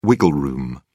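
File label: "wiggle room", British English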